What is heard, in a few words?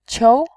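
"qiu", Mandarin Chinese, second tone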